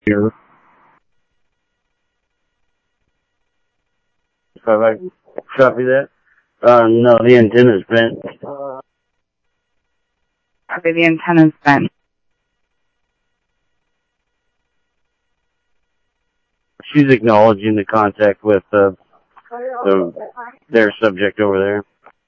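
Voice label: Sheriff East County, scanner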